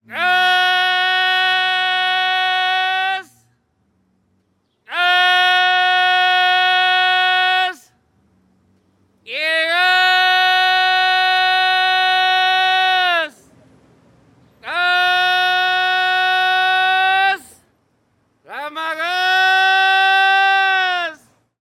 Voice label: human gas seller shouting mexico city; felix blume

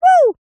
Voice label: "woo", cartoon character high pitched

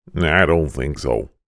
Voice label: cartoon voice male american I dont think so; zapsplat

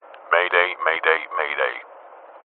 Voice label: transport aircraft pilot says mayday mayday

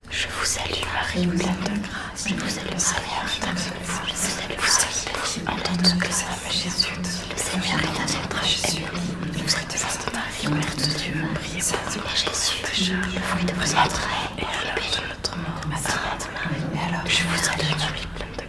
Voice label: human people group praying whispering; felix blume

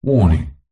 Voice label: science fiction computer voice says warning; zapsplat

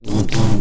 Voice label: Alien Scientist; little robot sound factory

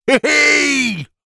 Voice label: human male shout hey hey happy celebrate; zapsplat

